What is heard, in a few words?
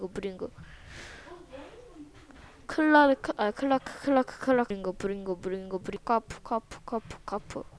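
"clock", spoken word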